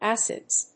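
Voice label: "acids", English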